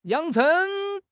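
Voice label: "yang3 cheng2", Mandarin Chinese; HSK4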